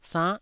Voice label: "sann9", taiwanese